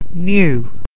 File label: "new", English